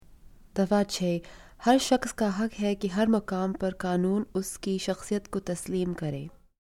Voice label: Urdu

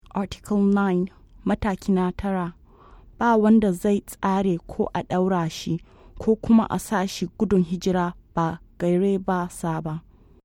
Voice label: Hausa

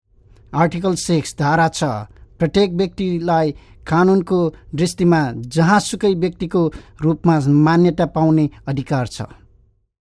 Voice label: Nepali

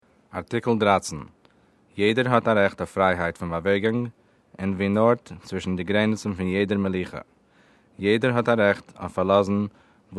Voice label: Yiddish

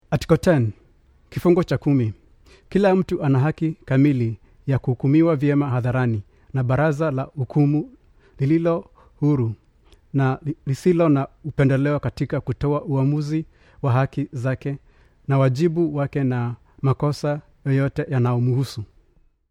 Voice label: Swahili

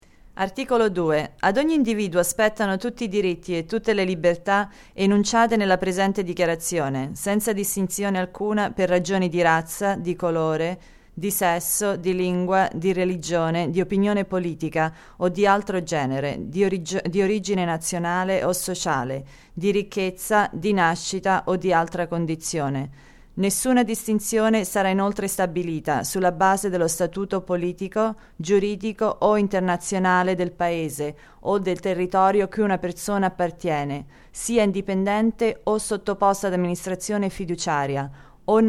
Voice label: Italian

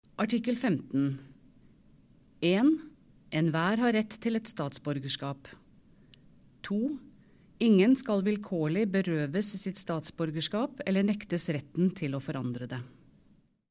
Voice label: Norwegian

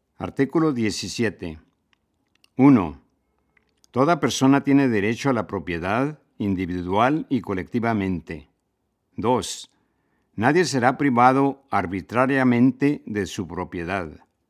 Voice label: Spanish

